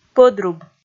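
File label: "пӧдруб", Komi, female; kpv audio dict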